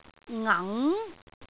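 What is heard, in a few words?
"ang2", Cantonese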